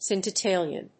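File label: "scintillation", English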